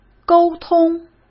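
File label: "沟通", Mandarin Chinese; chinese-flashcards; hsk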